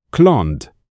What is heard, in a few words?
"cloned", English